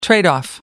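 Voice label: "tradeoff", British English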